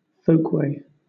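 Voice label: "folkway" British English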